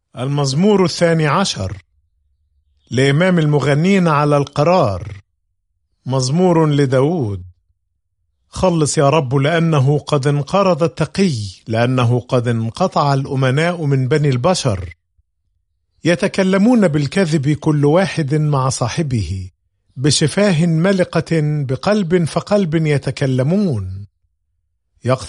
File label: Psalm, arabic bible; psalms